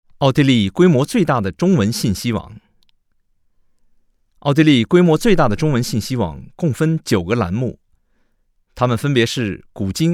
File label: China M1